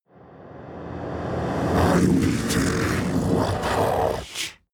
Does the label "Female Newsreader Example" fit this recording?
No